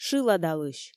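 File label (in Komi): "шыладалысь", Komi, female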